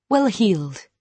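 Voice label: "well heeled", British English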